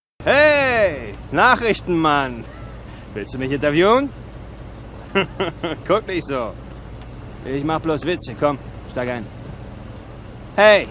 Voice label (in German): Synchron; BurnCountry